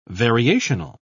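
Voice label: "variational", British English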